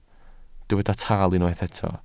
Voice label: "tal", welsh